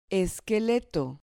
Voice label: "esqueleto", Spanish

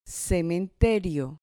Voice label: "cementerio", Spanish

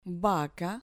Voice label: "vaca", Spanish

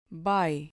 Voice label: "bai", Spanish

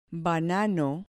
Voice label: "banano", Spanish

